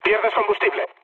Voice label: tank damaged, mood low, speech; War Thunder